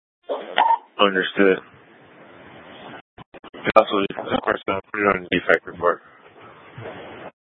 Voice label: Public Transit System Light Rail Ops, scanner